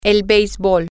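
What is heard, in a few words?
baseball, Spanish, female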